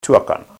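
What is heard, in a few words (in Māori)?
"tuakana", Maori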